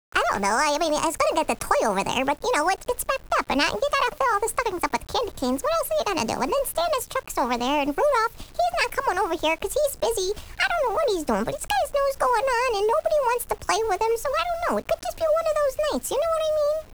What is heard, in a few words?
elf yap; toyshop